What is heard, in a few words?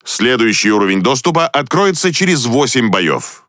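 Russian Speech Access Level Unlock; World Of Warships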